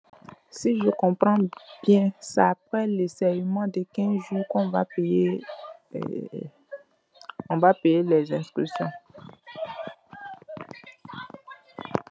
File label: voice message